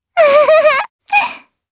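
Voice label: Vox Happy Japanese; Lavril; kings raid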